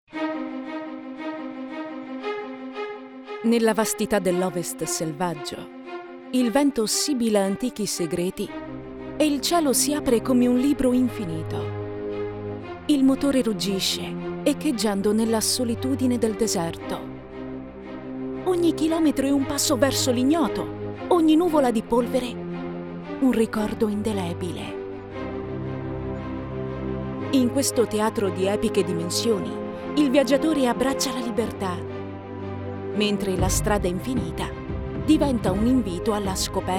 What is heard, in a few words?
Trailer